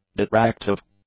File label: "detractive", english; adjectives